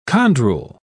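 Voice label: "chondrule", British English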